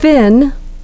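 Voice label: "fin", English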